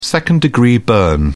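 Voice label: "second-degree burn", British English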